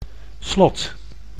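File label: "slot", Dutch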